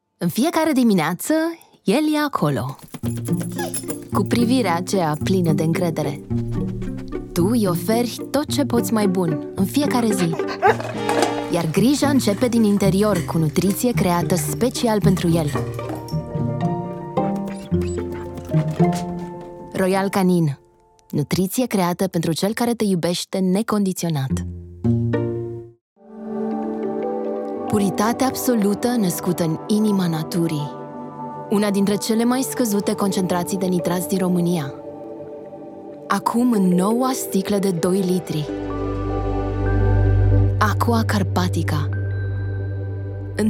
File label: Romanian; Eastern European; Based in Romania; Eastern European, Romanian, Male, Home Studio, 20s-40s.